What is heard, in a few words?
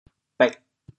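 "bêg4", Teochew, chaoyang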